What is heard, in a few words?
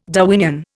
"Darwinian", Indian